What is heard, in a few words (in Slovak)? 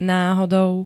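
"náhodou", Slovak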